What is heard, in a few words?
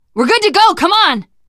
path clear, teengirl; voice; source engine; left 4 dead 2; survivor